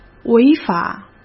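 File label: "违法", Mandarin Chinese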